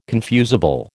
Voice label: "confusable", American